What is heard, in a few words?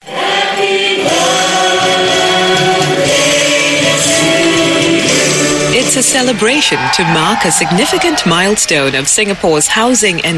Asian English Documentary; CNA